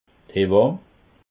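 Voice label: "hewamm", Alsatian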